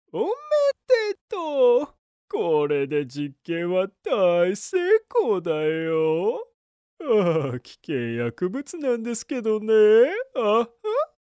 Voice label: foreigner